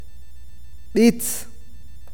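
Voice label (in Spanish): canción, awakateko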